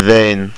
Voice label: wine, estonian